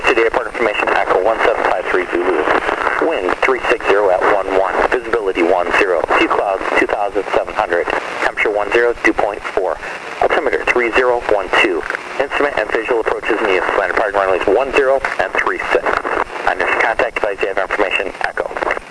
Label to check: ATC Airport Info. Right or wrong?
Right